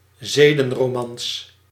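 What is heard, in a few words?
"zedenromans", Dutch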